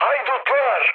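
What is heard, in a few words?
Turkish enemy detected, mood med, speech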